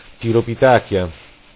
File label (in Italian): "tiropitàkia", Lingua Greca; Animali & Alimentazione